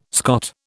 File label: "Scot", British